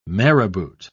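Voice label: "marabout", English, British English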